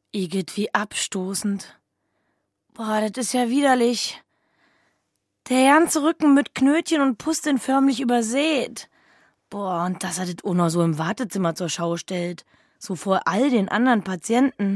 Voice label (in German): Dialekt Berlinerisch